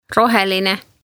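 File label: "roheline", Estonian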